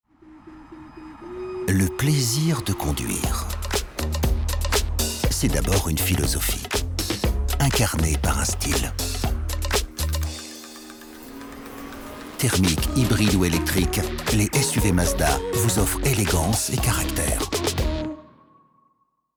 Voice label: Spot TV; Gamme SUV

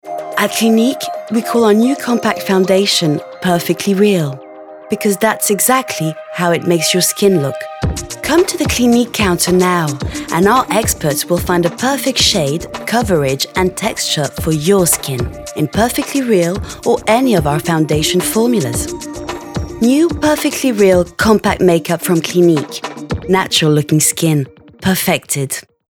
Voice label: Voix anglaise Cosmétique; Clinique

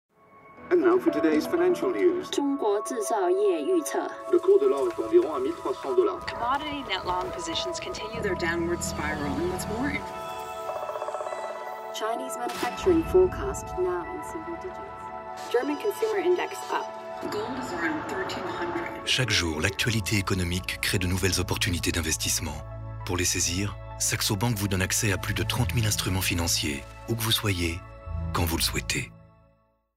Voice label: droit voix grave pub; Saxo Bank

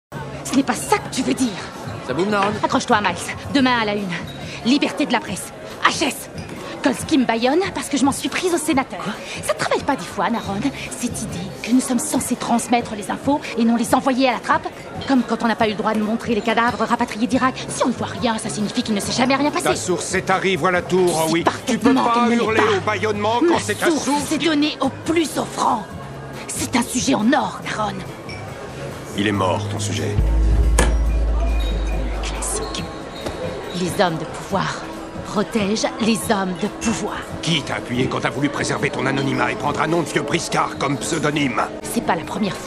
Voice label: doublage; Halle Berry; Dangereuse séduction

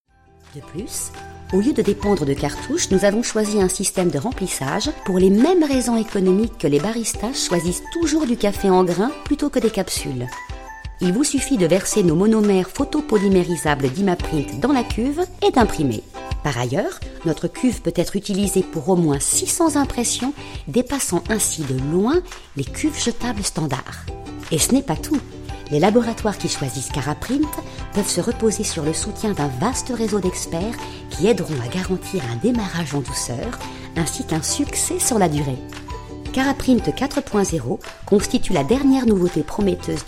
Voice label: institutionnel; cara Print

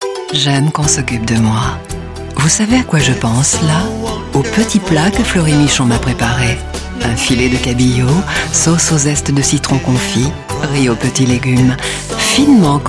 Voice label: pub tv; Fleury Michon

